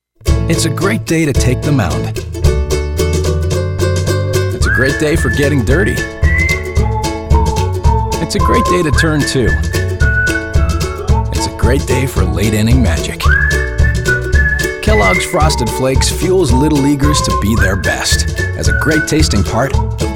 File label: commercial